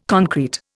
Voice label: "concrete", Indian